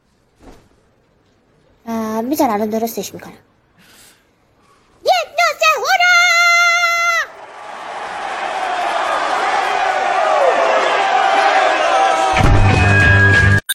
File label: narrator